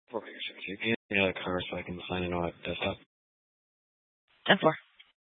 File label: Dispatch, scanner